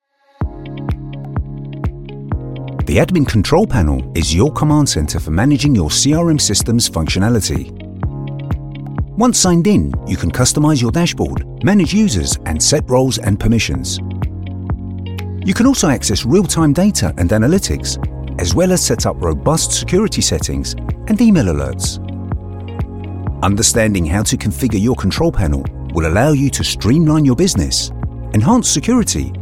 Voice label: Corporate